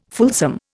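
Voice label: "fulsome", Indian English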